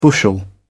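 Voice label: "bushel", British English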